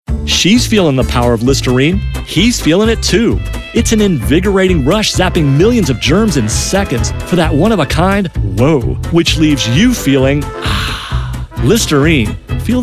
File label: vo commercial